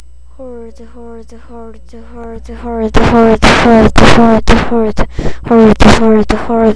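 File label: "hold", spoken word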